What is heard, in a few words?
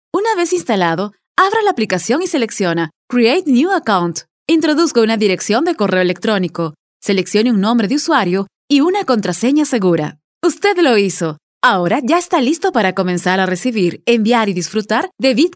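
Spanish, female, e-learning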